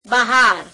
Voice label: gujarati outside; બહાર; beheār